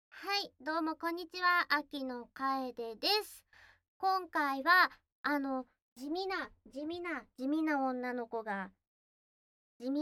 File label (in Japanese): フリートーク（ノーカット版）